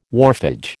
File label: "wharfage", American